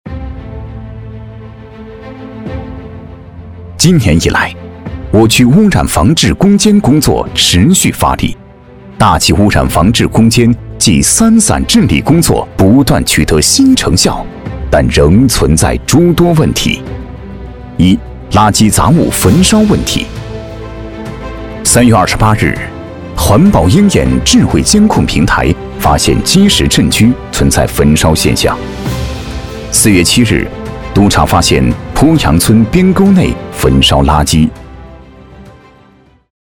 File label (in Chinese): 男 专题, 垃圾杂物